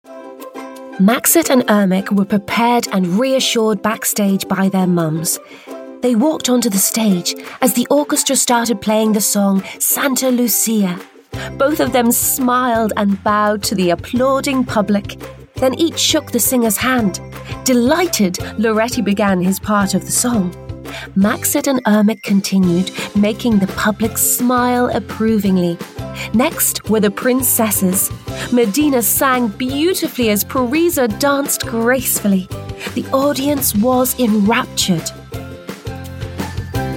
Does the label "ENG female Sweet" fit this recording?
No